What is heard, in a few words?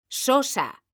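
"sosa", Spanish, female; mekanta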